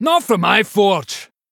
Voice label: Male Dwarf Play

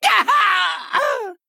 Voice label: Male Goblin Death

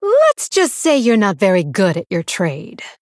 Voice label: Female Blood Elf

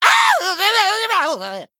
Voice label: Male Gnome Death

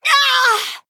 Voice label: Female Night Elf Death

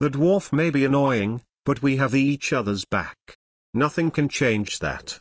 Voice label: Male Gnome; Hero; Scabbs; Mission9 Exchange Z

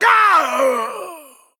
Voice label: Male Dwarf Death

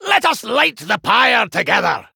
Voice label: Male Dwarf Emote Picked

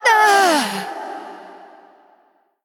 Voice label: Female Spirit Death

